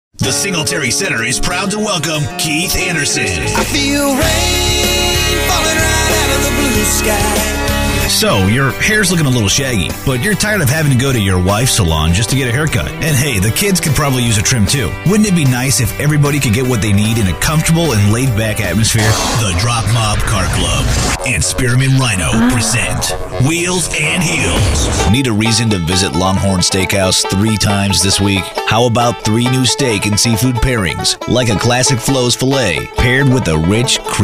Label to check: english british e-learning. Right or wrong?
Wrong